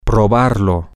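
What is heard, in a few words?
male, spanish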